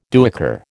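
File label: "duyker", American English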